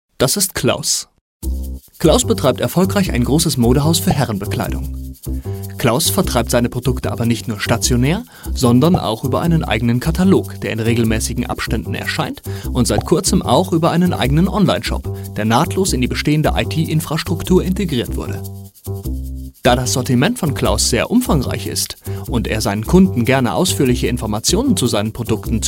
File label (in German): werbung